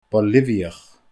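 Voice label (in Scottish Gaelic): "Boilibhiach", Scottish Gaelic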